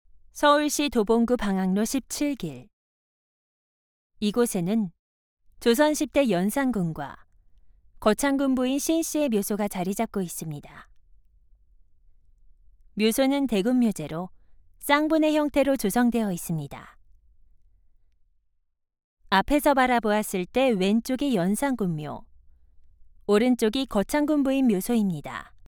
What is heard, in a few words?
foreigner